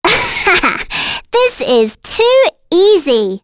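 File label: Vox Victory, voices heroes English; Reina; kings raid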